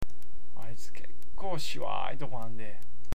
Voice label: "shiwai", awaji ben